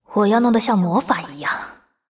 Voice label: move, zh